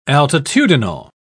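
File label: "altitudinal", British English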